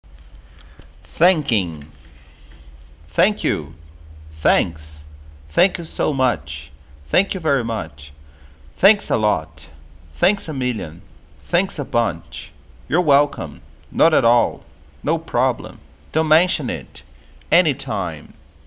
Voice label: Simple Conversation; english course module 01